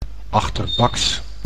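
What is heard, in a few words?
"achterbaks", Dutch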